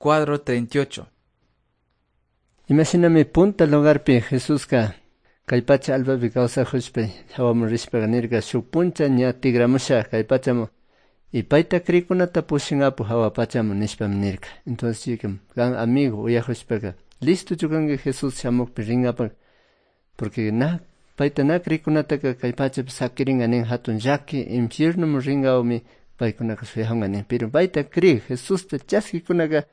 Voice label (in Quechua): good news quichua cayambe